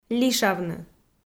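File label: "лишавны", Komi, female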